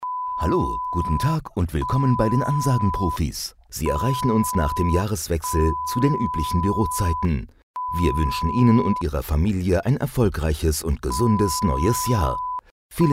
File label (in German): Sprecher piep Ansage